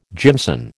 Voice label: "jimson", American